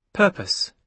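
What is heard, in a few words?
"purpose", British English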